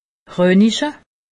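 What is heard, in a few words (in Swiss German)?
"roniche", Alsatian; medecine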